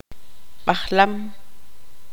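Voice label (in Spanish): "tigre", Tz'utujil, female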